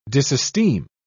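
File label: "disesteem", British English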